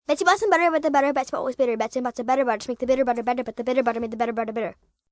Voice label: Fast, Tongue Twister, rhyme, 1 girl, fast tempo, kid, child; Betsy Bottom; 'Betsy's Butter'; female, human voice